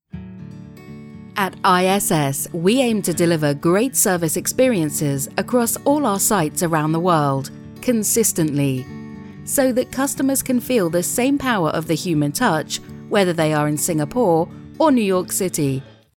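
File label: British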